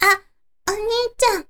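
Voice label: "oniityan", Japanese, girl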